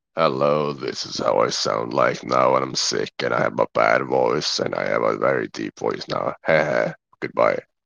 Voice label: voice message